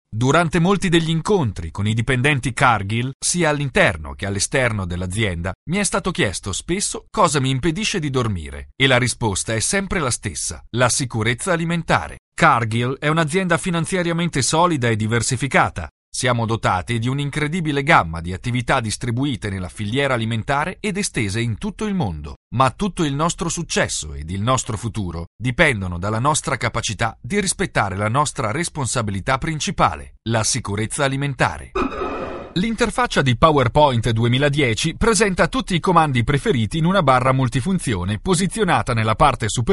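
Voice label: Italian male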